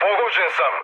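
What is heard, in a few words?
Serbian aircraft damaged mood med, speech; War Thunder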